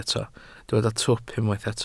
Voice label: "twp", Welsh

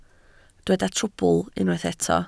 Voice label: "trwbl", Welsh

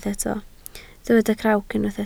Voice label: "crawc", Welsh

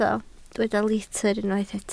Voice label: "litr", Welsh

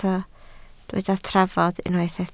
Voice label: "trafod", Welsh

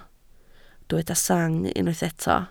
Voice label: "sang", Welsh

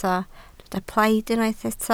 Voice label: "plaid", Welsh

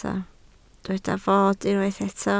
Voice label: "fod", Welsh

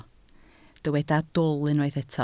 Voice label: "dwl", Welsh

